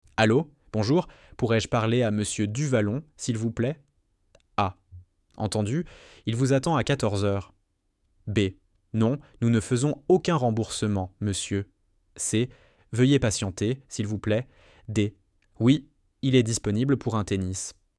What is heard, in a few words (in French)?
Appel telephonique; entrainement tcf canada